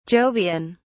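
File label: "jovian", British English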